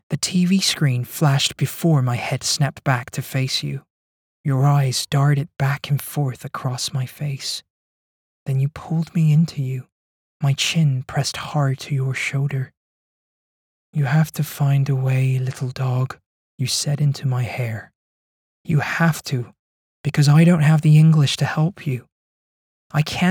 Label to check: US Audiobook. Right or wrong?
Right